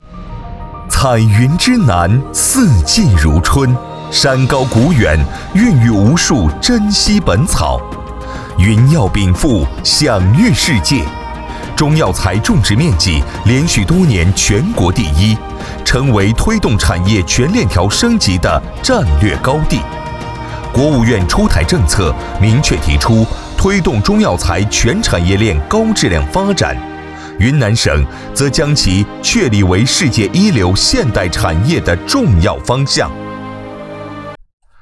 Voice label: 男 宣传片 医药; 云南白药

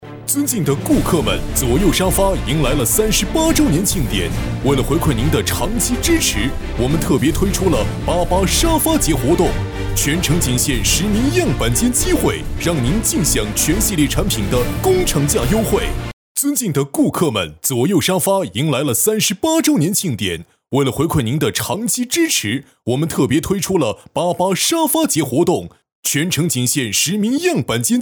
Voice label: 男 促销 尊敬的顾客们

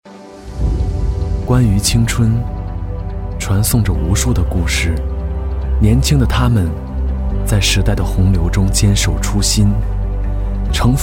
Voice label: 男 走心旁白; 关于青春

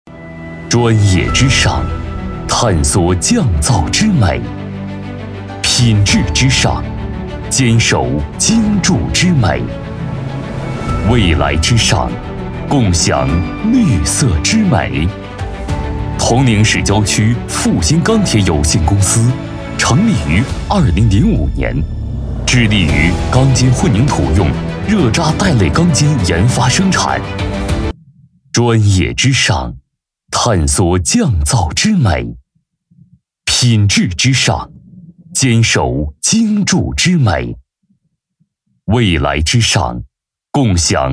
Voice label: 男 专题; 富鑫钢铁有限公司

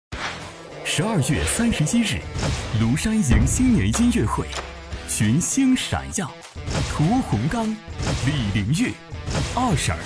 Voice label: 男 广告 央视栏目口播

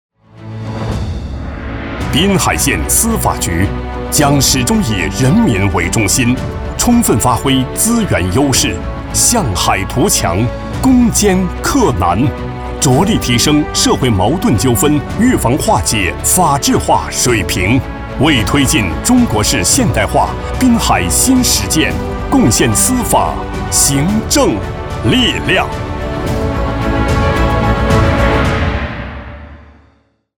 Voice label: 男 司法宣传

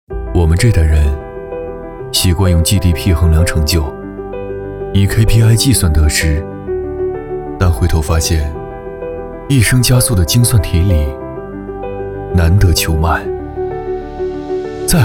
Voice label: 男 走心磁性松弛感; 我们这代人

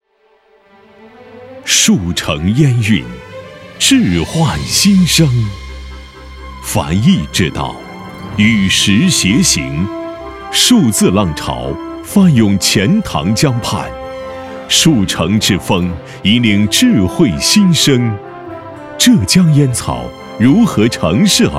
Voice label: 男 专题 沉稳大气; 数字烟草

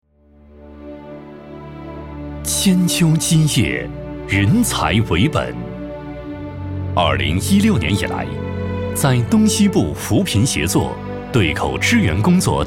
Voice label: 男, 党建专题, 扶贫类

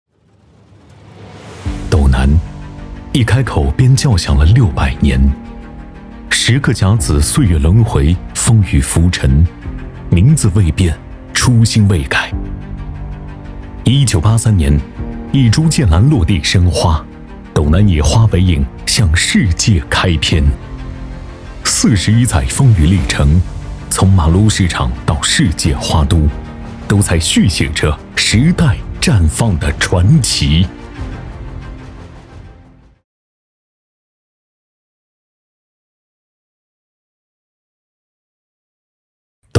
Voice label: 男, 专题 先导片; 春华序项目

